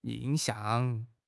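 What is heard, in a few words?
"yin4 xiang4", Chinese; 汉语口语新时代-初级下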